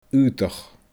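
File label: "aodach", Scottish Gaelic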